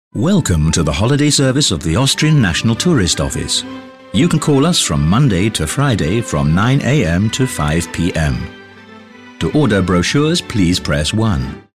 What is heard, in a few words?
Austrian Tourist Info IVR